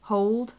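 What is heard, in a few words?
"hold", British English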